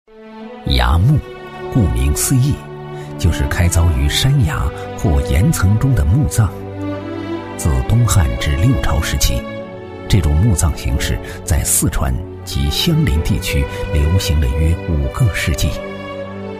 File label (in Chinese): 男 历史文化 介绍; 崖墓介绍